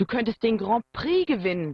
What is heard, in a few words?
german voice; BALLY; CORVETTE